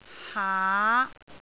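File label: "haa5", Cantonese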